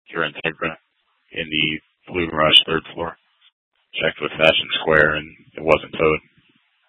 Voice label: PD Dispatch